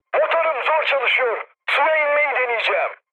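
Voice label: Turkish damaged engine, mood med, speech; War Thunder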